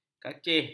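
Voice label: "kacih", Malay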